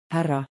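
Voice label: "harra", Estonian, Baltic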